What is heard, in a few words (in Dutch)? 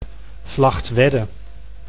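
"Vlagtwedde", Dutch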